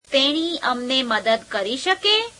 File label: gujarati, can she help us; [1st pl. object pronoun]